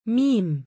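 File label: "miim", Arabic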